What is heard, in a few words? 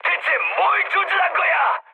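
Korean player friendly fire mood high; War Thunder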